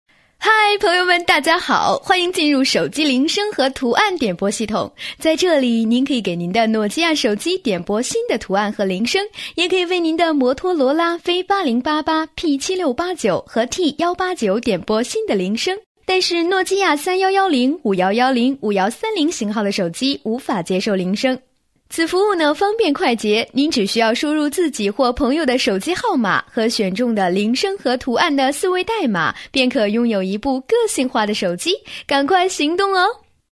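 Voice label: chinese mandarin female ivr; voice demos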